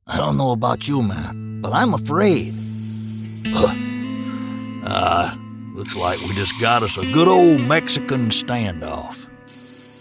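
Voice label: US English, Animation; GoLocalise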